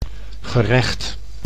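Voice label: "gerecht", Dutch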